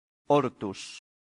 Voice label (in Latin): "hortus", latin; santillana